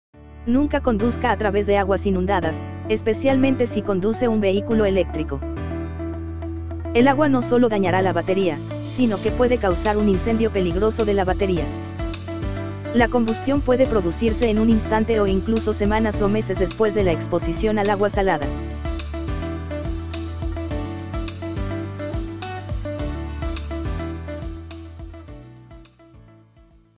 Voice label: never drive through flood water spanish; home hazardous materials